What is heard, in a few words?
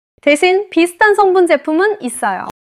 neutral, monologue